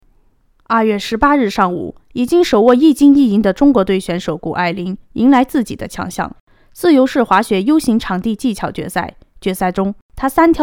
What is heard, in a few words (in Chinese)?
新闻